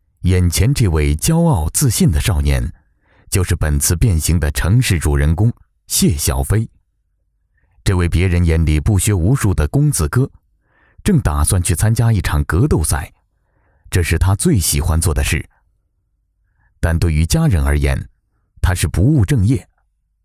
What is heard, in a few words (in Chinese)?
男 纪录片